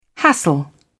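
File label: "hassle", British English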